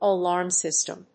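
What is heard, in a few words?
"alarm system", English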